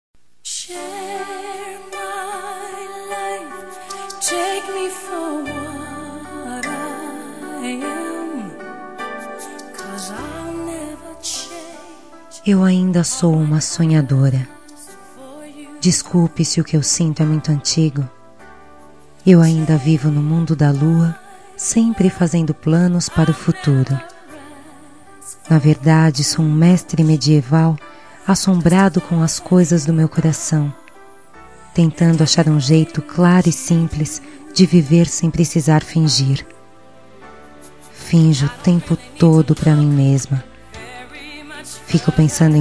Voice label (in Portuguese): ROM RECONCILIACAO FEM, Telemensagens